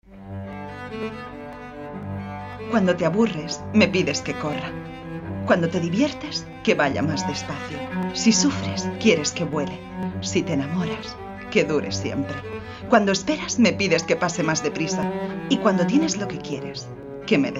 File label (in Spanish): comercial